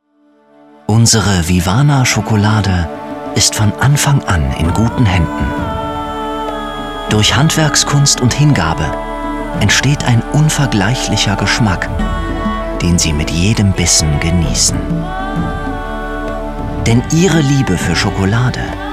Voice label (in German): Leidenschaft, German; voice